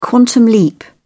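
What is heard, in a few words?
"quantum leap", English, British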